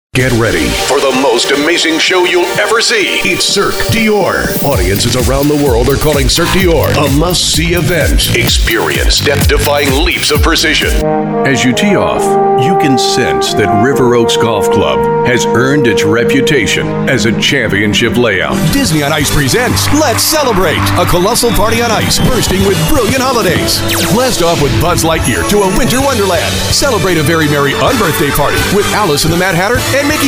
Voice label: VOICE OVER